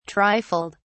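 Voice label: "trifled", British English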